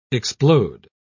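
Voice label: "explode", English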